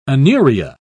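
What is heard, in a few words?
"anuria", British English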